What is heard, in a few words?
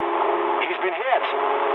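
English aircraft destroyed mood med; War Thunder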